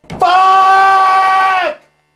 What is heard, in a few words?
"fuck" long tourettes, expletives